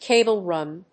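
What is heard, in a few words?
"cable run", English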